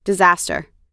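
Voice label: "disaster", American English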